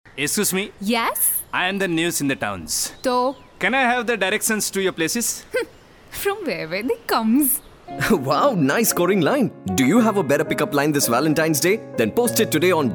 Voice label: directions Eng, male voice

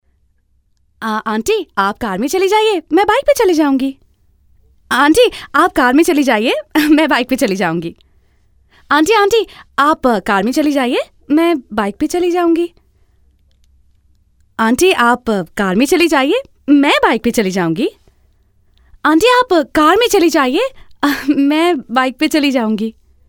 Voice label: female voice character vo

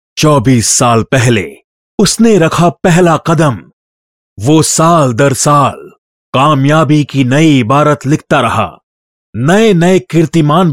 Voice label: male voice

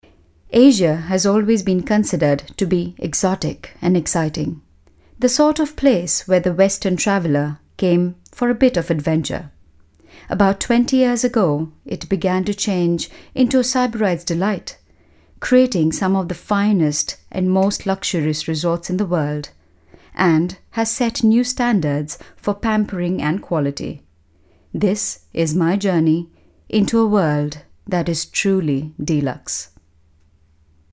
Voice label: vo english, female voice

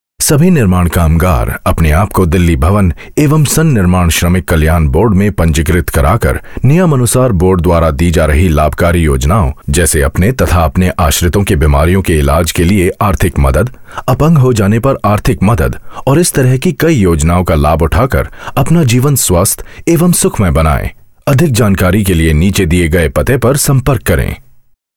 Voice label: medical, male voice

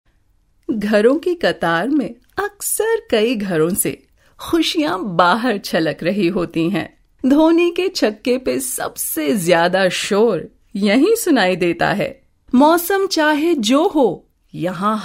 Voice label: hindi, female voice

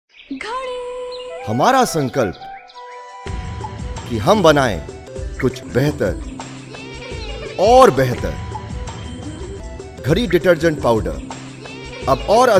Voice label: AD HINDI, male voice; GHADI

